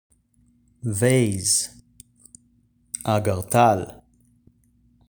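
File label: "vase" English, "אגרטל" Hebrew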